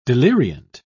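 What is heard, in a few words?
"deliriant", British English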